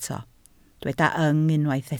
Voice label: "yng", Welsh

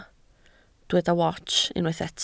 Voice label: "wats", Welsh

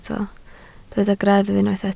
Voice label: "greddf", Welsh

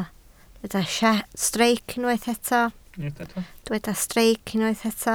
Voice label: "streic", Welsh

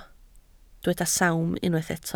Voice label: "sawm", Welsh

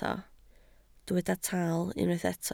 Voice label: "taal", Welsh

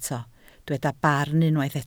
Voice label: "barn", Welsh

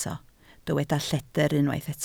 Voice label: "lledr", Welsh

